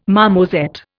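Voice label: "marmoset", Indian English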